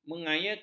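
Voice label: "mengayakan", Malay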